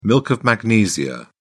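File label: "milk of magnesia", British English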